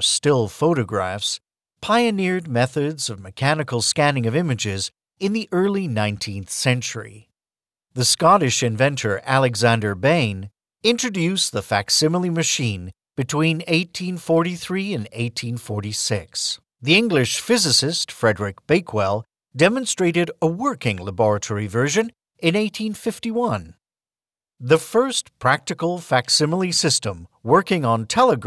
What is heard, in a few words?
Audio books American; USA